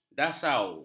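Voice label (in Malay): "dasau", Malay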